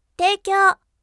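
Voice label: voice teikyou bright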